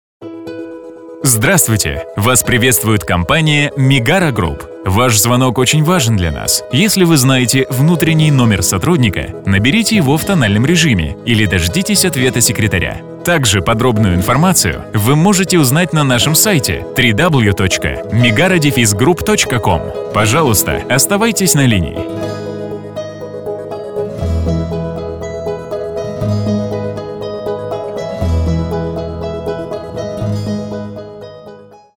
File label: IVR